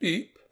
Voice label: Voices intrigued; The Looker

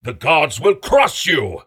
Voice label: Voices Phrase Hi

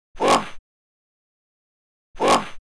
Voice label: Male; Oretachi Game Center Zoku; Karate Champ

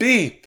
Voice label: Voices urgent; The Looker

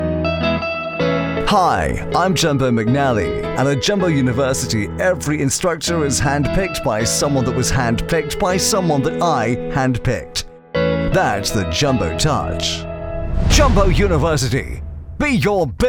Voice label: Ad; Jumbo Touch; Two Point Campus

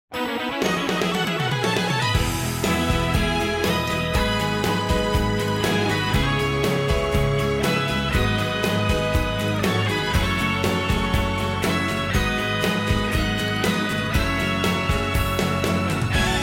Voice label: Voice Message; Legendz; Character Song Series

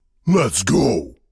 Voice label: Voice Dialog

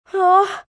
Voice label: Spider Spooked Scared; the unfinished swan

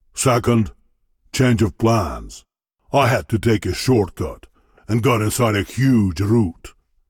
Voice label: Voice Dialog; We Are the Dwarves

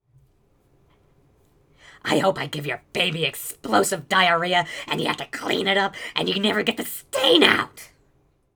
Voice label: Male Begging

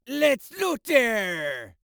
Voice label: Voices Jamaican Lets Loot There

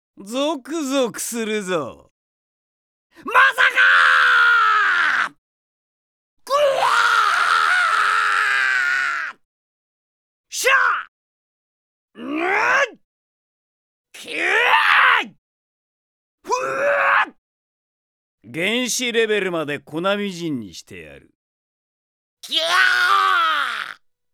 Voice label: character voice; D-XHIRD; SAOTOME

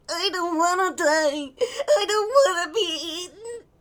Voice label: Male Kid Beggings

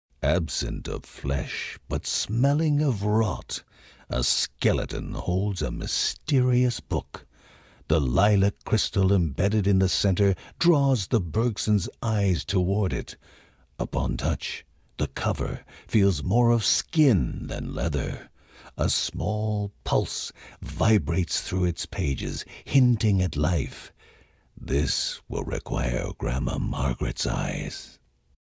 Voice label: Mystic Book narration; children of morta; Procedural Events

